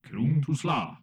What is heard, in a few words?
Humanoid male greeting; Stellaris